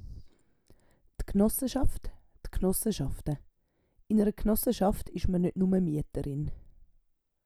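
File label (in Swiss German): "Gnosseschaft", Swiss German; Wortschatz Kapitel 8; Kapitel 8 Zusatzwortschatz 4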